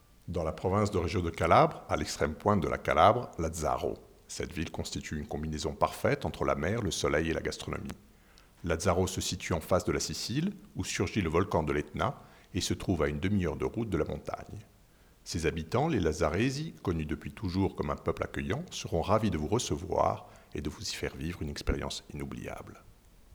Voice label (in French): Francaise